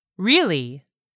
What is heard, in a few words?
"really", english; 3000 words